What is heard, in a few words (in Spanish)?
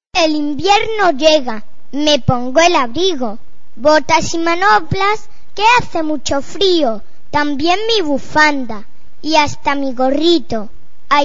estaciones poesia invierno; fantasmin; poesias